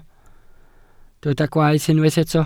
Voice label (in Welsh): "gwaeth", Welsh